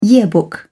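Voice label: "yearbook", British English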